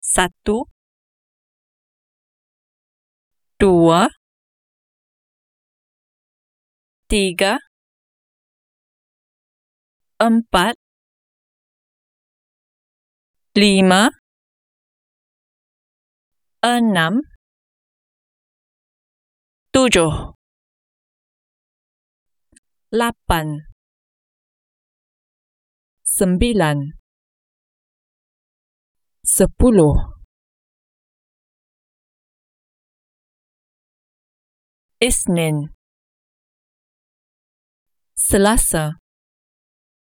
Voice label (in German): malaysisch